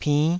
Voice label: "pinn5", taiwanese

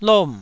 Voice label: "lom4", Taiwanese syllable

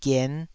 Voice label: "gien5", Taiwanese syllable

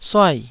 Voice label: "suai4", taiwanese Syllables

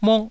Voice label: "mok1", taiwanese

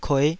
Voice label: "kueh5", Taiwanese syllable

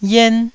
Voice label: "yien1", taiwanese Syllables

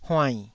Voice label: "huainn3", Taiwanese syllable

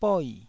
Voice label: "boi4", taiwanese

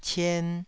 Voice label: "cien2", Taiwanese

